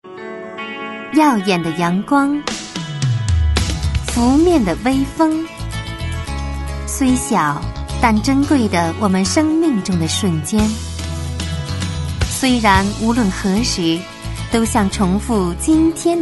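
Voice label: chinese mandarin female, voice samples commercial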